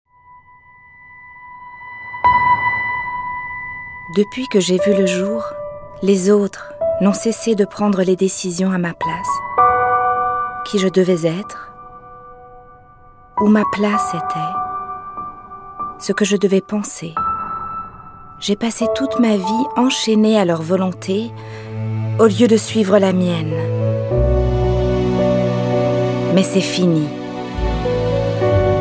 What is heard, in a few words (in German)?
FR Werbung Sanft